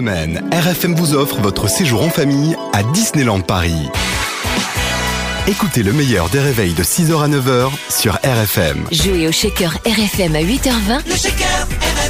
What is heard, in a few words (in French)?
VO Voix H